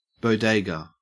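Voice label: "bodega", English, Australian accent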